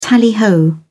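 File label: "tally ho", British English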